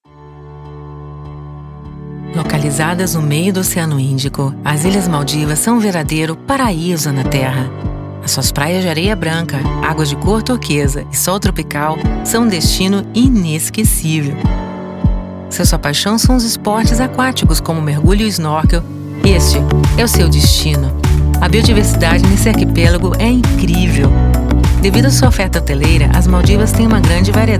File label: portuguese brazilian commercial